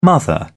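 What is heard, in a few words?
"mother", British English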